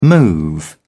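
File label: "move out", British English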